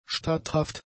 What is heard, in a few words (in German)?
"statthaft", German; adjectives